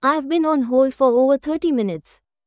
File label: I've been on hold fo, listening dictation